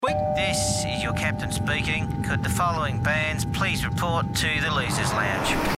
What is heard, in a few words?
Announcement